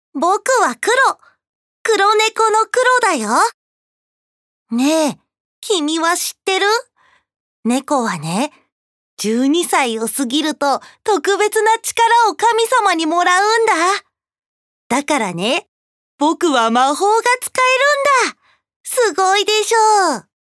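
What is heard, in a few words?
boy, Japanese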